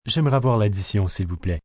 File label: Bill Please, Restaurant, bar, foreign, male, French; Talking Sound Effects; Sound Effects:Voice; VoiceBox